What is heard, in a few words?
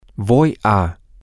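im going to, Spanish, male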